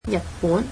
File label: cantonese Japan